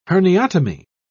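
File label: "herniotomy", British English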